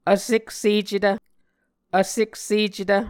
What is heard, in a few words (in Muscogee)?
"vseksecetv", Muscogee